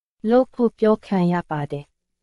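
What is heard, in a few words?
Myanmar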